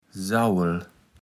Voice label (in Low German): "Sauwel", kaevels platt